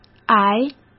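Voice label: "癌", Mandarin Chinese; chinese-flashcards; hsk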